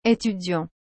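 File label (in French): "etudiant", French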